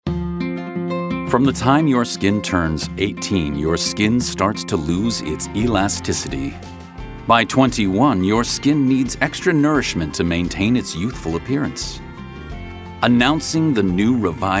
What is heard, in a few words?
US English Cosmetics